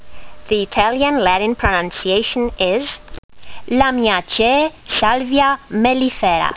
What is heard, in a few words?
latin; Salvia mellifera